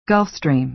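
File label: "gulf stream", American English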